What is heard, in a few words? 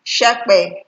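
"shepe", Nigerian Pidgin, female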